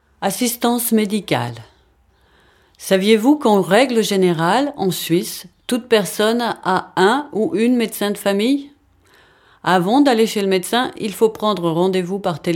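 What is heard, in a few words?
French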